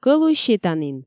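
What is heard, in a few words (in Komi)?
кӧлуй сетанін, Komi, female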